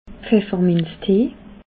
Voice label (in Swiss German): "pfefferminztee", alsace